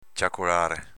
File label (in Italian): "ciacolare", dialetto veneto; v. chiacchierare